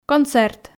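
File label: "концерт", Komi, female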